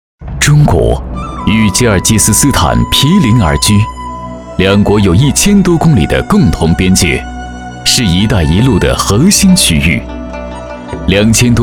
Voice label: 男 专题; 一带一路核心

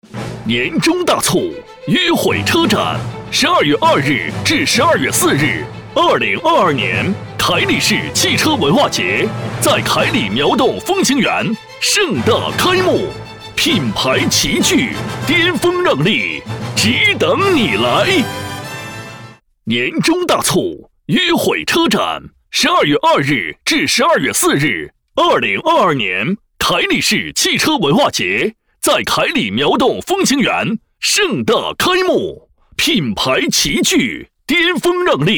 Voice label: 男 激情广告宣传 车展宣传

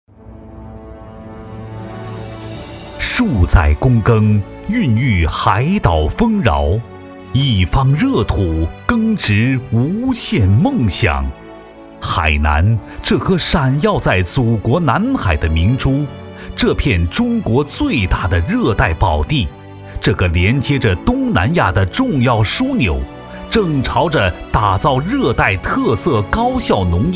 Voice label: 男 专题 样音; 海南

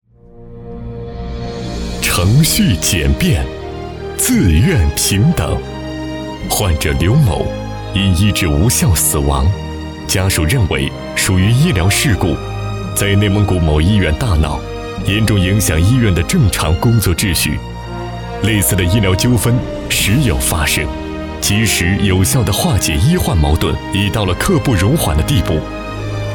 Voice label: 男 宣传片 医疗宣传; 调解医患纠纷

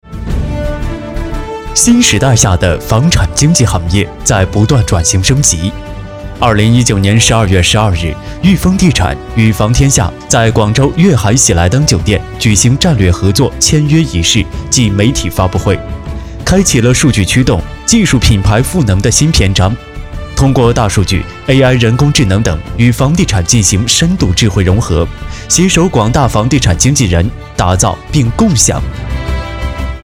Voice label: 男 房产经纪

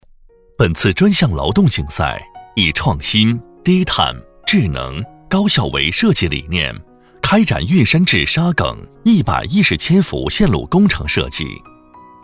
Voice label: 男 课件 工程解说 工程概况

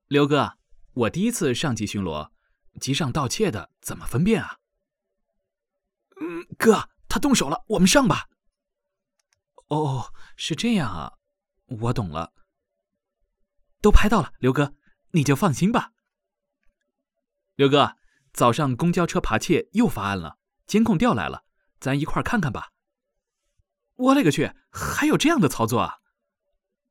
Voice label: 男 角色 青涩 青年音; 新警小A

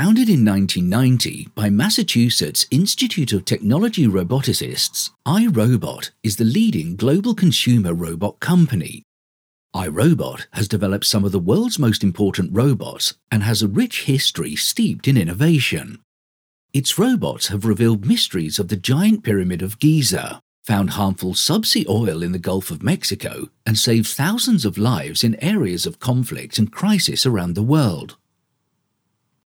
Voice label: 虚拟展厅欢迎词 英文 Hello

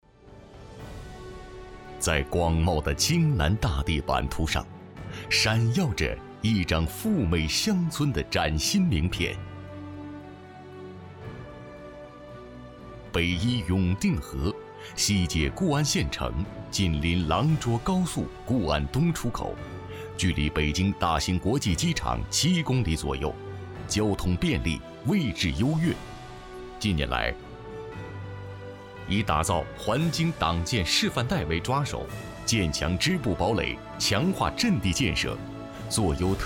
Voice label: 男 宣传片 大气厚重宣传片

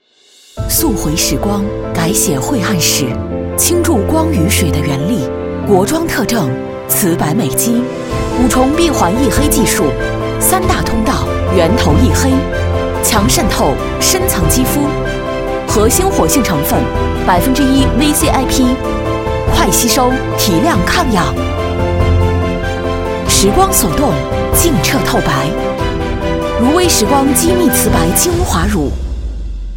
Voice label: 女 广告 化妆品; 瓷白精华水-乳-化妆品TVC

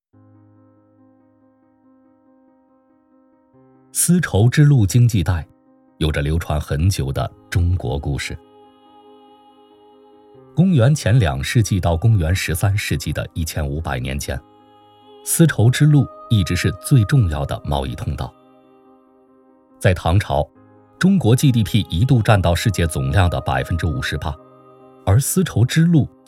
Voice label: 男 纪录片; 丝绸之路

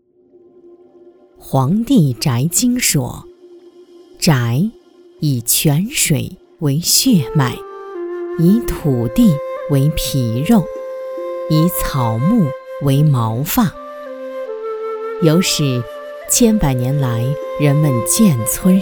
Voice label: 女 纪录片 泉水

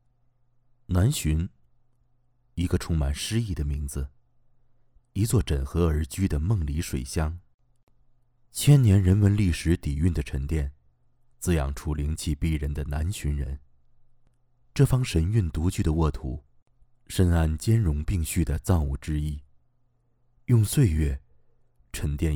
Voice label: 男,专题; 祥生

